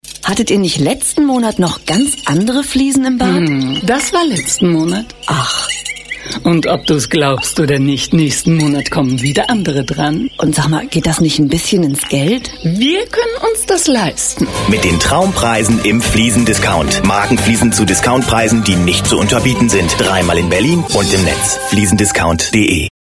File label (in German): Bauwesen Radiowerbung